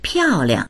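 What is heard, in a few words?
"piao4liang", Mandarin Chinese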